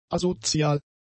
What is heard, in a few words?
"asozial", German; adjectives